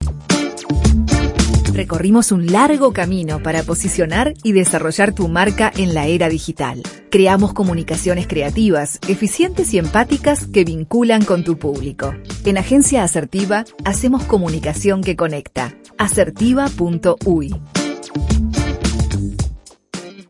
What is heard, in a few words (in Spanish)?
Locucion; Asertiva